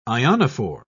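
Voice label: "ionophore", English, British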